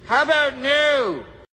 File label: evil no